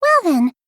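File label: "well then", English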